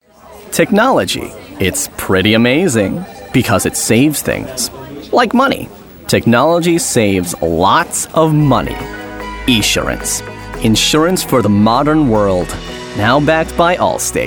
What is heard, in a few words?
Insurance Warm Friendly